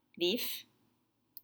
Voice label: "vif", French